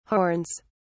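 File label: "horns", British English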